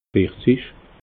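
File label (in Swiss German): "vierzig", Alsatian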